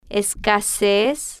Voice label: "es-ca-sez", Spanish

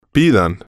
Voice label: "pidan", Spanish

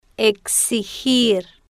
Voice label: "exigir", Spanish

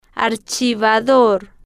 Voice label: "ar-chi-va-dor", Spanish

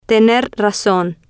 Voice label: "tener razon", Spanish

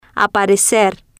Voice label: "aparecer", Spanish

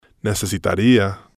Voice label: "necesitaria", spanish

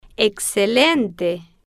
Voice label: "excelente", Spanish

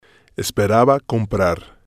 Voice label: "esperaba Comprar", spanish

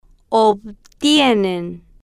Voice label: "ob-tie-nen", Spanish

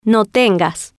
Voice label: "no Tengas", spanish

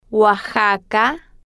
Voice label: "oaxaca", Spanish

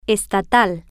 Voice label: "es-ta-tal", Spanish

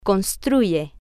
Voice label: "construye", Spanish

